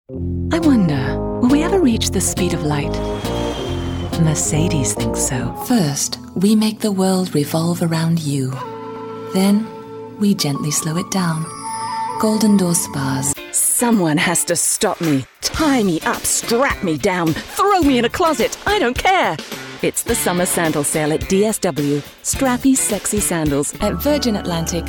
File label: commercial